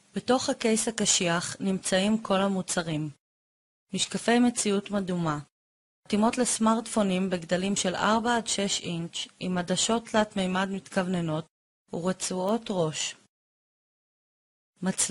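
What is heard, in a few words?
Hebrew, female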